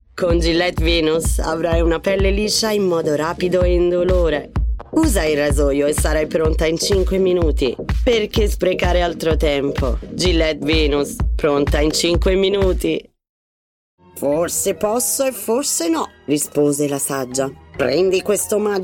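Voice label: Italian, General; GoLocalise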